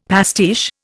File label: "pastiche", Indian; Dictionary